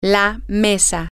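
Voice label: the table, spanish, female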